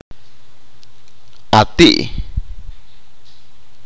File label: abuela materna, achi